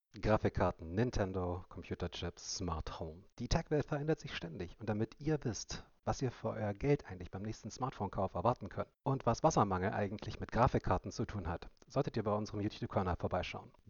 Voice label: Razer Barracuda X mic test